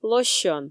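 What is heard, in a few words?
"лосьон", Komi, female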